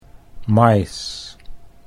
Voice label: "mais", Dutch

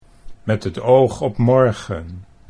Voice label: "met toog op morgen", Dutch

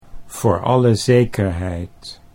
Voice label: voor alle zekerheid, Dutch

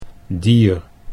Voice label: "dier", Dutch

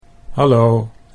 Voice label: "hallo", Dutch

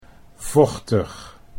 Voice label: "vochtig", Dutch

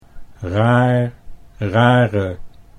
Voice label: "raar rare", Dutch

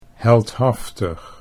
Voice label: "heldhaftig", Dutch

